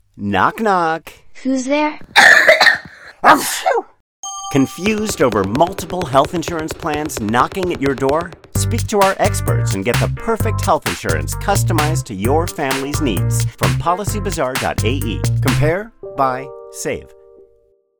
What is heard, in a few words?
English spot, radio